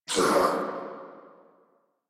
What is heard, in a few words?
Pain Sniper, en